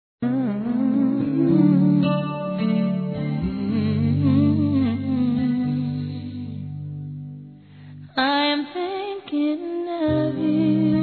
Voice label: ANIV. FILHA F; Aniversários; Aniversários diversos; fem

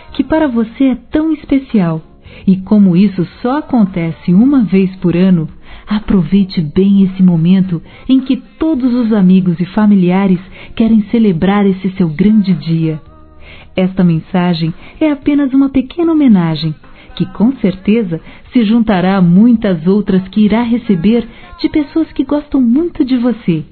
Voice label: ANIV. NEUTRO F

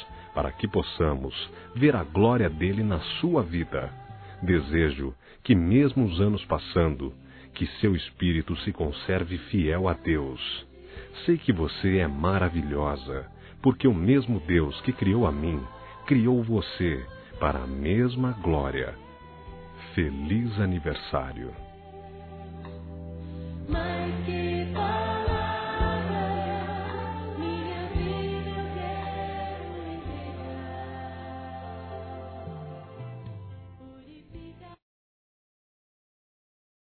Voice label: Cunhada Masculino; ANIV. Evangelico

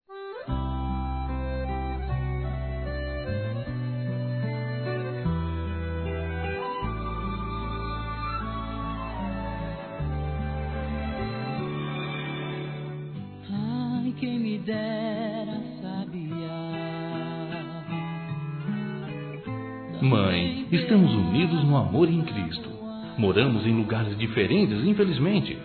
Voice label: MÃE DISTANTE DUAS VOZES; Dia das Mães; Masc; Telemensagens 2017

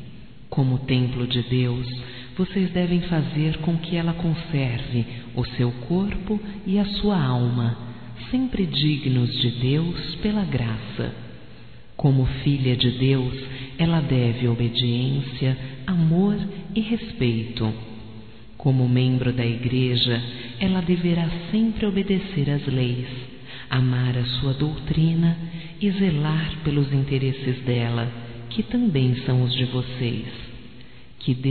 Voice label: Mensagem Batizado Para Padrinhos Feminino, Telemensagens

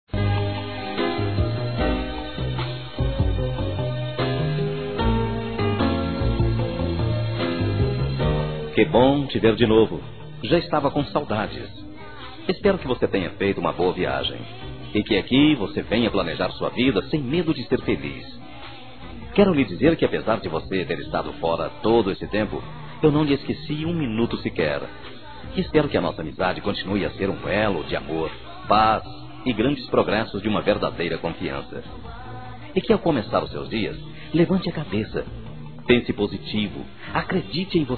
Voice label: BOAS VINDAS M, masc; Telemensagens; Telemensagens 2017

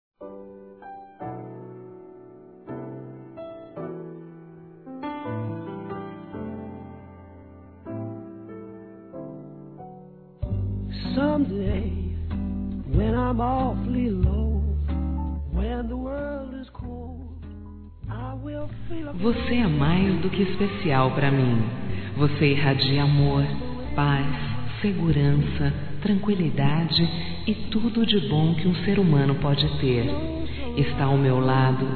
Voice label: AGRADECIMENTO A MÃE-F; Aniversários; Aniv Familiar

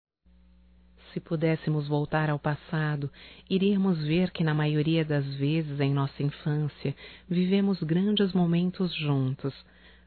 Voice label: DESCULPAS IRMãO, fem; Telemensagens 2017

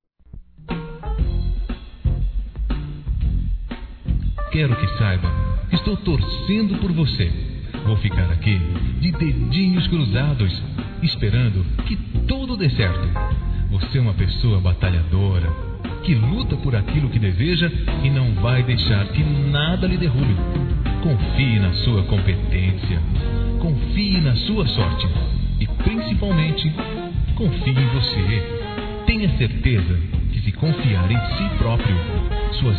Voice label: OTIMISMO P HOMENS M; Telemensagens; masc

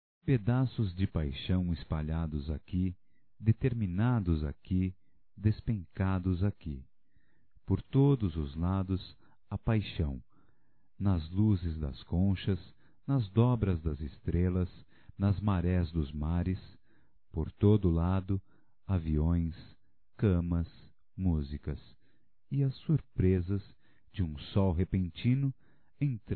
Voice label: Só Você Masculino

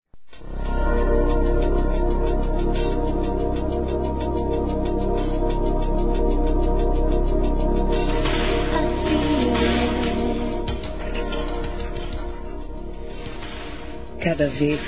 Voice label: Sensuais, fem; Eu Aqui Passiva; Telemensagens

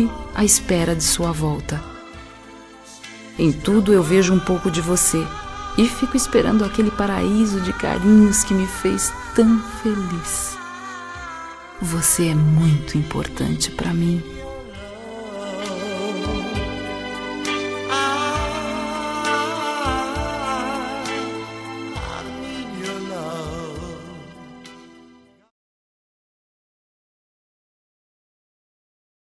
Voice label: ROM SAUDADE FEM; Telemensagens; Saudades

